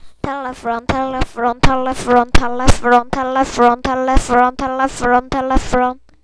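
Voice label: "telephone", spoken word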